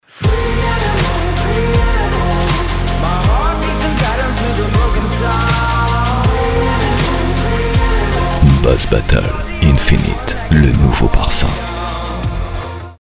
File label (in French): deep, french voice over; Boss Bottled